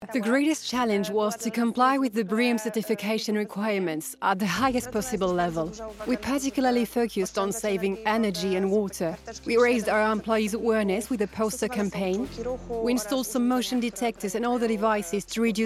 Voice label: voice over anglais; VINCI

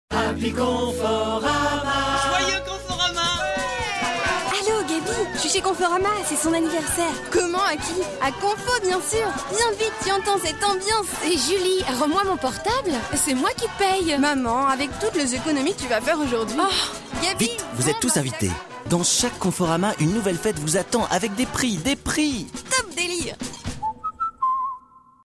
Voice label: RADIO; Conforama

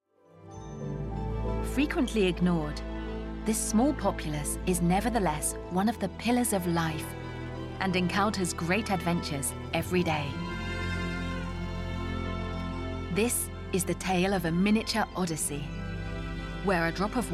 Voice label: documentary; Butterfly

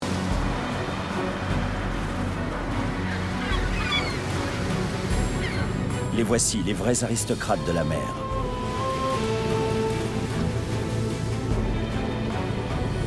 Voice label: Narration; LES FORCATS DE LA MER

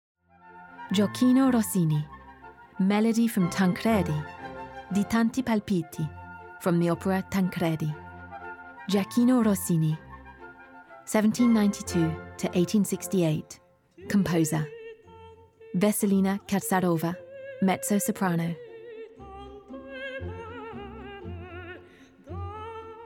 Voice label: introduction English VO; musee de la Vie Romantique; Rossini Tancredi

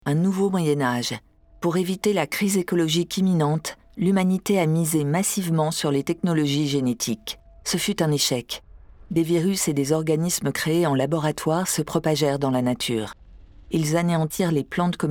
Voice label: AD; Vesper Chronicles

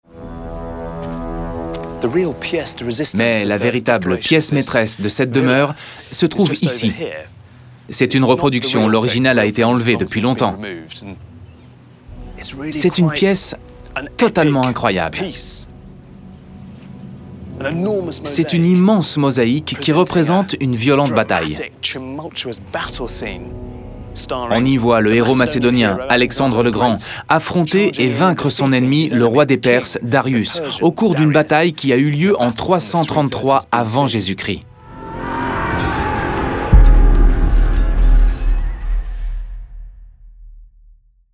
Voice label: Voice Over; Rome

